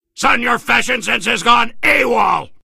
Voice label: soldier hat taunts